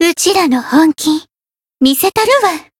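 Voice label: Voice variable chant, Japanese; hoozuki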